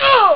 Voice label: man; arcade